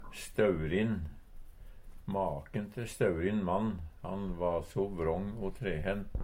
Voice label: staurin, Numedalsmål; Adjektiv

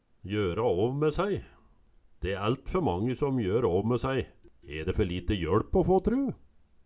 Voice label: jora av me saei, Numedalsmål; De e æltfer mange som jør åv me sæi, e de fer lite jøLp å få tru?

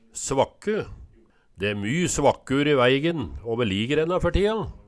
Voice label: svakke, Numedalsmål

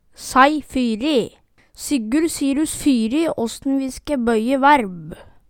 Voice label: "saei fyri", Numedalsmål

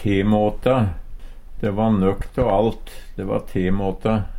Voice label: temåta, Numedalsmål; Adjektiv; De va nøk tå alt. De va temåta.

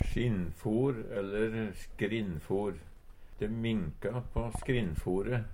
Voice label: skinnfor, Numedalsmål; Substantiv inkjekjønn; De minka på skinnfore.

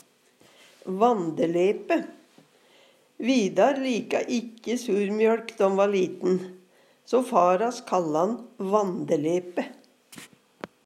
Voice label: vandelepe, Numedalsmål; Vidar lika ikkje surmjøLk då'n va liten, o far hass sa'n va ein vandelepe.

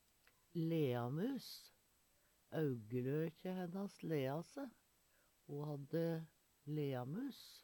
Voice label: "leamus", Numedalsmål; Substantiv hokjønn

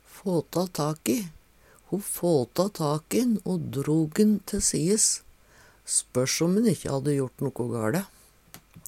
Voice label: fåtå tak i, Numedalsmål

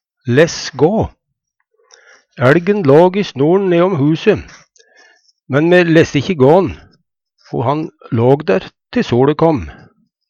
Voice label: "less gå", Numedalsmål

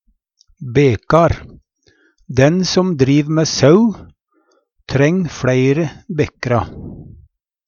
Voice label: bekar, Numedalsmål; Dei som driv mæ sau, treng fleire bekra.

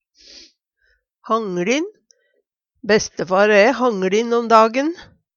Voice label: "hangLin", Numedalsmål; Bestefar æ hangLin om dagen.; Adjektiv

